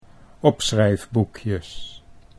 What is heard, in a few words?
"opschrijfboekjes", Dutch